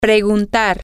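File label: to Ask, Spanish, female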